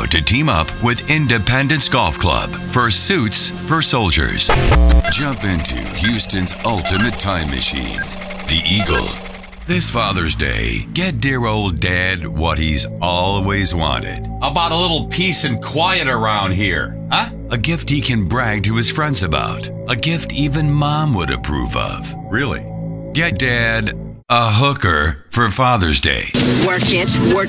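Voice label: radio imaging men classic hits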